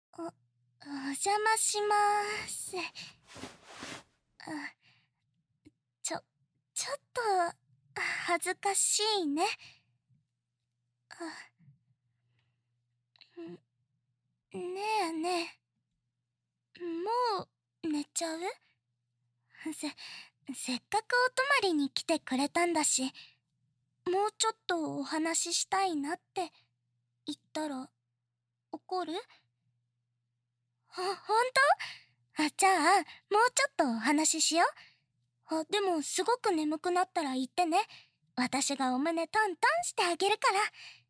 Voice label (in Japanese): 添い寝